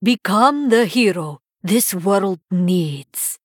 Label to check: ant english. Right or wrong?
Wrong